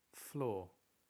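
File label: "floor", English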